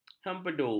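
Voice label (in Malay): "hempedu", Malay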